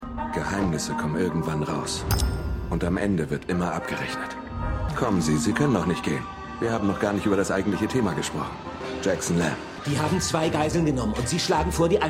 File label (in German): Mann 1, Mann 2; Slow Horses